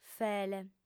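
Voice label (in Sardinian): "fele", female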